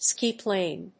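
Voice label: "ski-plane", English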